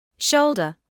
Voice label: "shoulder", medical English

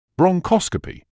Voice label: "bronchoscopy", medical english uk

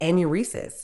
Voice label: "anuresis", Medical English

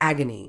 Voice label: "agony", Medical English

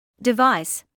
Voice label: "device", medical english

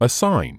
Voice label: "assign", medical english uk

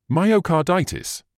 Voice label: "myocarditis", English

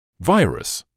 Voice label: "virus", medical English

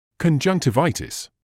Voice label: "conjunctivitis", medical english uk

